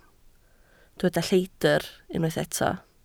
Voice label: "lleidr", Welsh